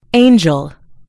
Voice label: "angel", en US; gcompris